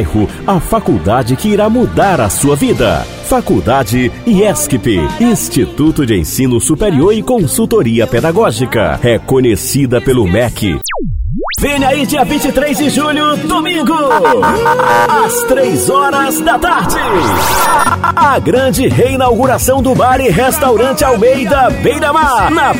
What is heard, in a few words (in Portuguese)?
locucao brasil